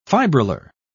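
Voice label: "fibrillar", English, British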